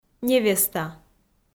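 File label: "невеста", Komi, female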